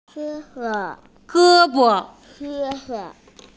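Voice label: "ge bo", Mandarin Chinese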